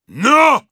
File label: Heavy cart moving forward offense, ru